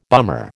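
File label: "bummer", American English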